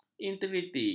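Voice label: "integriti", Malay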